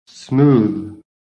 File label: "SMOOTH", american